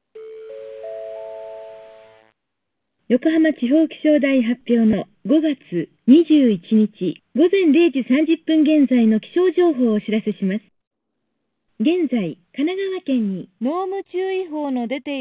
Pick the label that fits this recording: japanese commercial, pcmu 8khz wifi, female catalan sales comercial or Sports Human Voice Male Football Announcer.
pcmu 8khz wifi